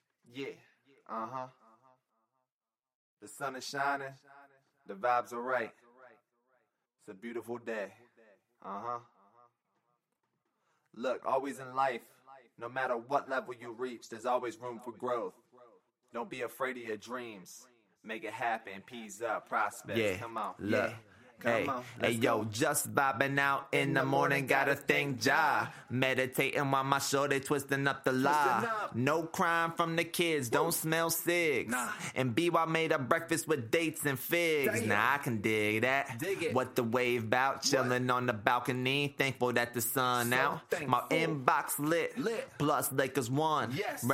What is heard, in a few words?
Acapellas, 80BPM